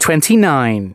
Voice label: announcements male

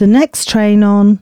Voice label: announcements, female

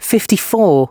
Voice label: announcements male

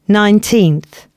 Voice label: announcements female

